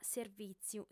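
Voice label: "servìtziu", Sardinian, female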